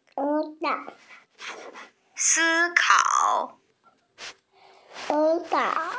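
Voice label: "si1 kao3", Mandarin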